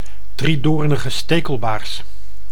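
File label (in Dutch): "driedoornige stekelbaars", Dutch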